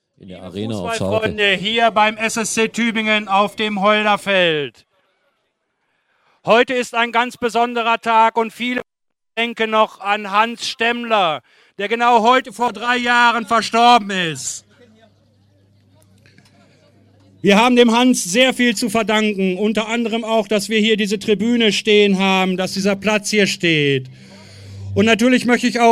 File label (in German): Ankuendigung Stadionsprecher